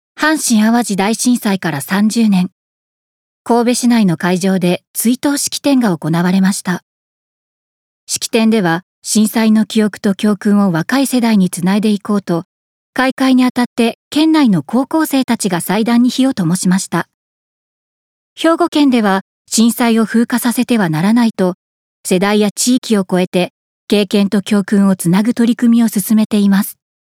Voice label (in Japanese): ニュース